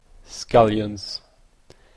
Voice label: "scallions", en-ca